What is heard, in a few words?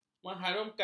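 "mengharumkan", Malay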